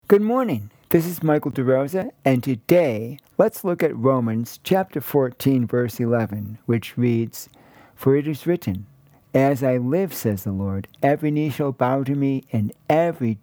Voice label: Radio sermonete